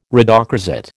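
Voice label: "rhodochrosite", American English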